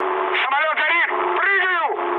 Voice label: on fire, mood low, speech ru; War Thunder